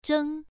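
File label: "zheng1", Chinese pronunciation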